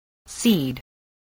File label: "seed", english spelling